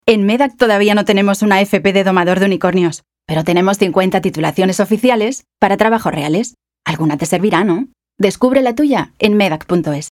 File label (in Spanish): informal; voces en espanol; MEDAC